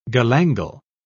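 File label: "galangal", British English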